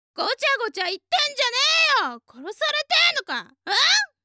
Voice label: w angry